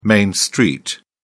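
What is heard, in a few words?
"main street", British English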